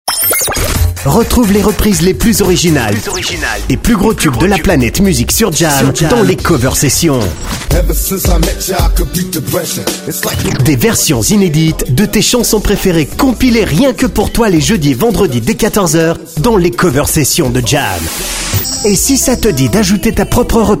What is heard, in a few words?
french switzerland radio imaging; swiss french